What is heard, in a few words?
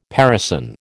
"parison", American